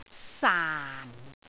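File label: "saan4", Cantonese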